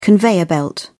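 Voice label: "conveyor belt", British English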